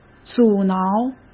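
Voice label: "阻挠", Mandarin Chinese; chinese-flashcards; hsk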